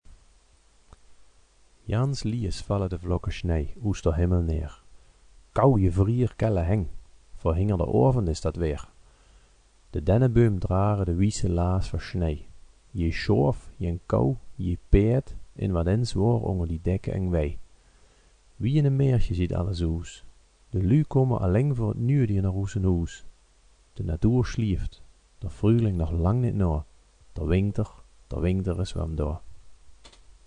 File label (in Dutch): Winkter, gedichten in het kerkraads dialect